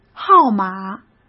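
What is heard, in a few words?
"号码", Mandarin; chinese-flashcards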